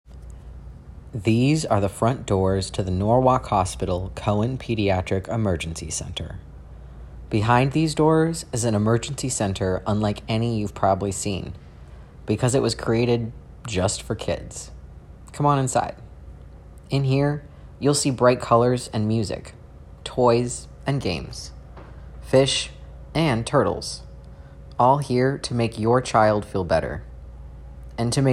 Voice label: adult